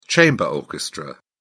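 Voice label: "chamber orchestra", British English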